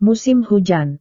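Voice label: "musim hujan", Indonesian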